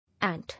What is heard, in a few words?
"Ant", English